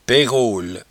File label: "pairole", patois jura